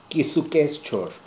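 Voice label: "Keesookesschoor", pronunciations; Fourth Way; Beelzebub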